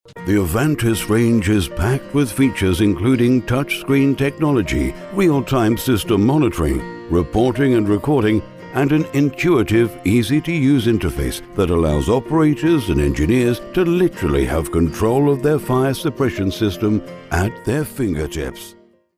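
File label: English, British, male, elearning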